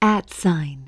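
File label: "at sign", English; ASTERISK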